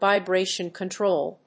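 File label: "VIBRATION CONTROL", English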